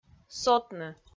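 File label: "сотны", Komi-Zyrian, female; audio dict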